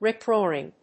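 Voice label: "rip-roaring", English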